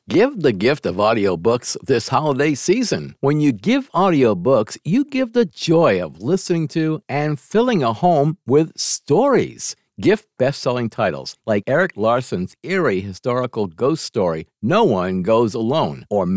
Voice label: AUDIOBOOKS; SLOW FIRE BURNING

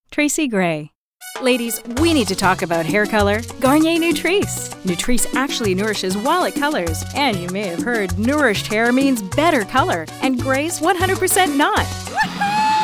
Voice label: Commercial

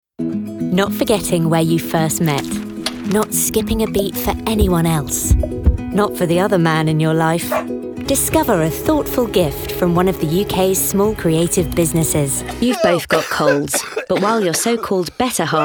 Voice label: commercial

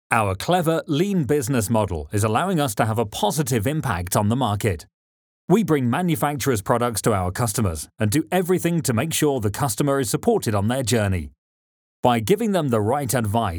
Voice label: Corporate

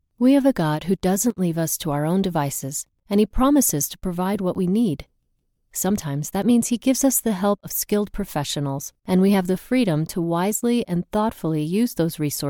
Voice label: Non-Fiction AUDIOBOOK, Authentic, Engaging

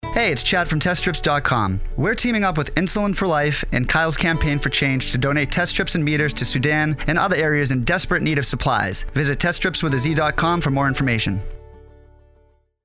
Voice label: 10 Second Commercial; TestStripz

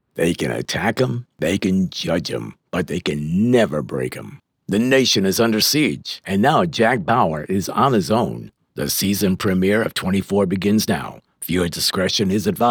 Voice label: TV & RADIO

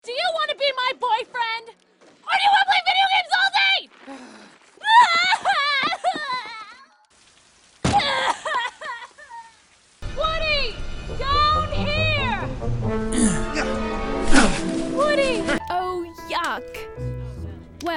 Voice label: Voice Over

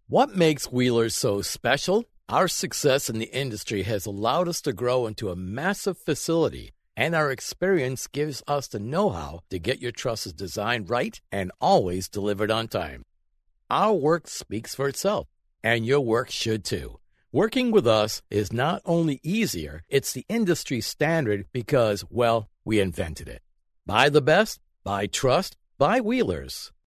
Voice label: INTERNET AD; WHEELERS

